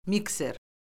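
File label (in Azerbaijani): "mikser", Azerbaijani; Orfoqrafiya Lüğəti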